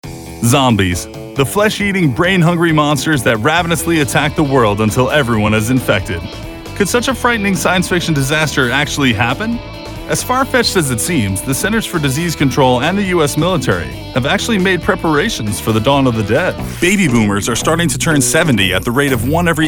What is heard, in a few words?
vo narration